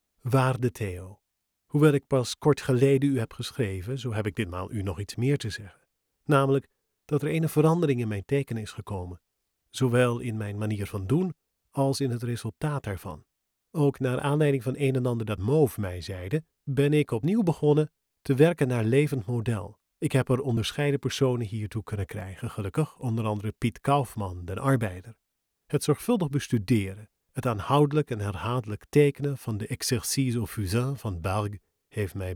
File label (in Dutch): Dutch; Nethervoice; Letter to Theo